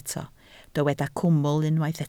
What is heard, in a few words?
"cwmwl", Welsh